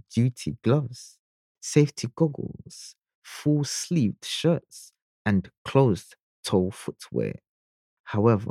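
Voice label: risk of injury, articulate, professional, warm Male Voice Over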